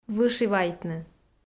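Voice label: "вышивайтны", Komi, female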